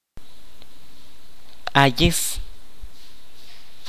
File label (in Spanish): "pasto", kaqchikel